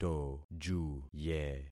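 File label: "tojuye", concatenated syllables; Affixing experiment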